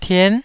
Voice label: "tien9", Taiwanese

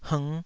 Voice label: "hngh5", Taiwanese

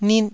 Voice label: "nit1", taiwanese

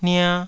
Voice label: "nia2", taiwanese syllables

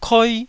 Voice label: "koi1", taiwanese syllables

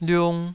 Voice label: "liung2", Taiwanese syllable

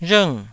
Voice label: "rhng4", taiwanese

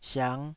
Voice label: "siang5", taiwanese

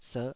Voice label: "serh5", taiwanese syllable

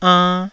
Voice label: "ann1", taiwanese

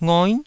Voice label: "ngoi9", taiwanese syllables

